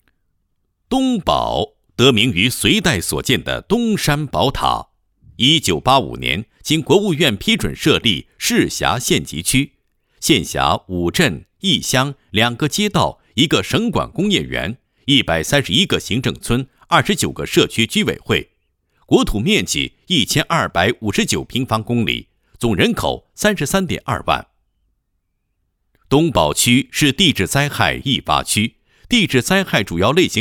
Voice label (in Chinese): 男, 政府工作; 东宝区高标准“十有县”配音